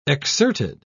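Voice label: "exserted", British English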